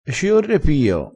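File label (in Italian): "e ci orre pio", dialettale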